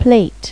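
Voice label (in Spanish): "trenza", Spanish; Pipo-Aprende musica con Pipo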